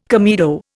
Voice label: "comedo", Indian